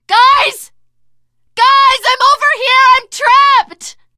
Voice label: call for rescue, teen girl voice; survivor voice